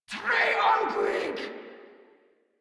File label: Attack Grineer Female; Warframe